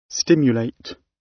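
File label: "stimulate", British English; The arts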